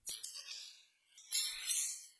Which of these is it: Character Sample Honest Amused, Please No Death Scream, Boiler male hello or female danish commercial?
Boiler male hello